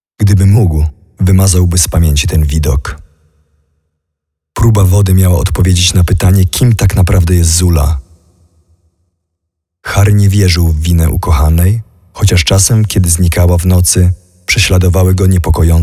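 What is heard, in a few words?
Voices VO Polish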